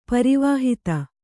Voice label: "ಪರಿವಾಹಿತ", Kannada

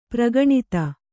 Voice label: "ಪರಿಗಣಿತ", kannada

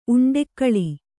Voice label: "ಉಂಡೆಕ್ಕಳಿ", Kannada

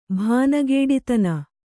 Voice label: "ಭಾನಗೇಡಿತನ", Kannada

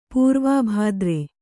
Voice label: "ಪೂರ್ವಾಭಾದ್ರೆ", kannada

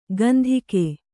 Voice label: "ಕಂತಿಕೆ", Kannada

